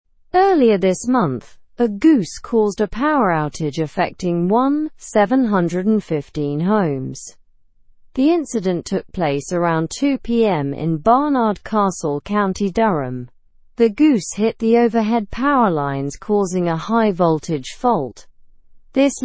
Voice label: learn english through news lower intermediate slow; goose knocks out power to homes